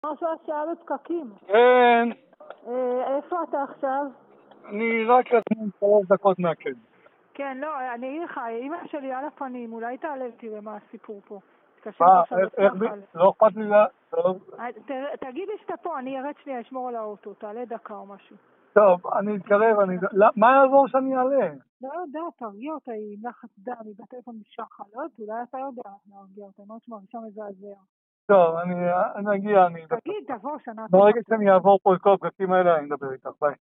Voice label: Call recording